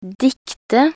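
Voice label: "dikte", Norwegian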